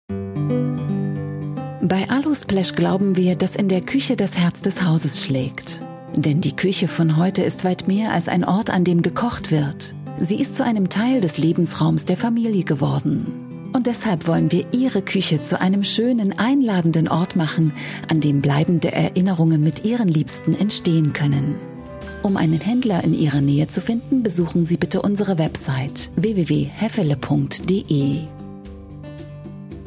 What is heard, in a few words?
german explainer videos, voice actors; doubleuse professionnelle allemande; Vidéos explicatives